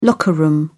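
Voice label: "locker-room", English, British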